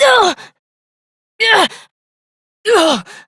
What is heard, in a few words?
Struggle Long Adult; New War; Operator